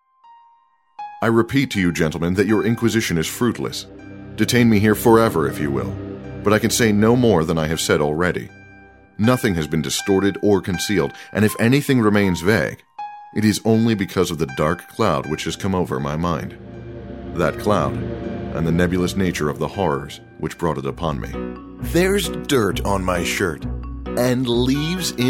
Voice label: AudioBooks